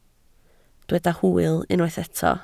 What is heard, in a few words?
"hwyl", Welsh